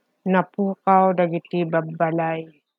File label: Ilocano